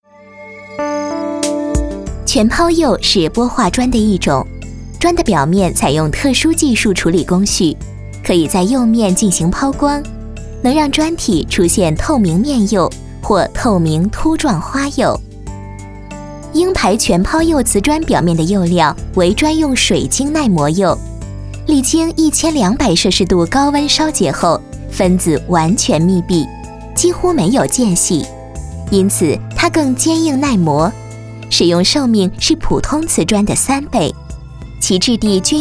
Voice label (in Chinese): 专题 产品 全抛釉 专业介绍 甜美, 女国